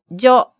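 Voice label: "jo", Japanese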